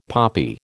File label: "poppy", American